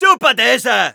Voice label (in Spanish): Scout special completed, es